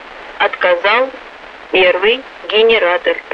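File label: Russian speech, Mi-8MT RI65; Messages first generator failure; DCS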